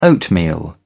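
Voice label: "oatmeal", English, British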